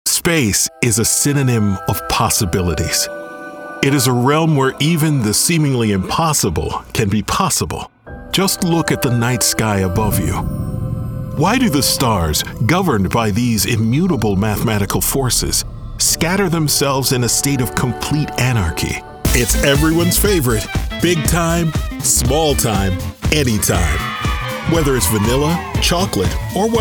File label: TV in Show Narration